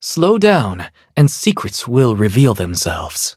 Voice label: level up, en

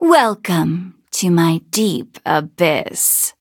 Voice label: levelup, en; Cookie Run

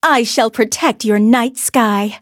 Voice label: level up, en; cookie run

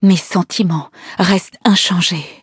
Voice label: upgrade, fr; Cookie Run

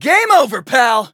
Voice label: eng ultimate skill; ice pop; Cookie Run

